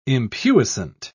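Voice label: "impuissant", British English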